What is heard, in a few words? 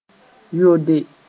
"yode", Mooré